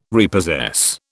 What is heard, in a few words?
"repossess", British